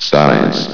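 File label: "science", male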